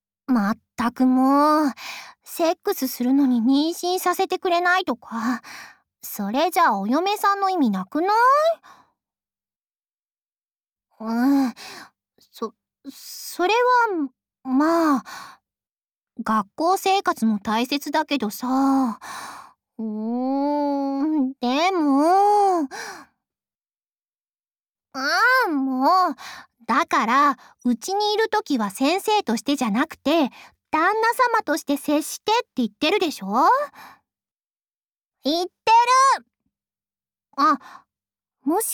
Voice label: LOVE Whisper; おしえご